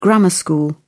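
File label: "grammar-school", English, British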